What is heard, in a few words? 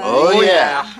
oh yeah, two guys